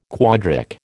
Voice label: "quadric", American

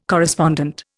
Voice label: "correspondent", Indian

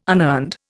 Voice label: "unearned", Indian

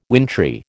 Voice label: "wintry", American

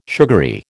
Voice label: "sugary", American

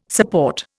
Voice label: "support", Indian

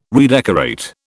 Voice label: "redecorate", British

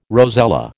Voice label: "rosella", American

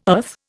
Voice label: "earth", Indian

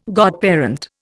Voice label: "godparent", Indian

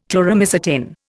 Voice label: "Chloromycetin", Indian

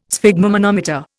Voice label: "sphygmomanometer", Indian

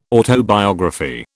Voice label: "autobiography", British

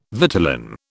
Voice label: "vitellin", British

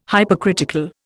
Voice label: "Hypercritical", Indian

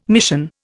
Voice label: "mission", Indian

